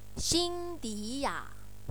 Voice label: cynthia in chinese